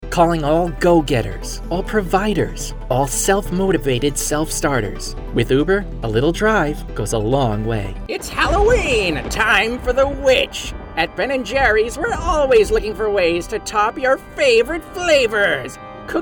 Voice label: commercial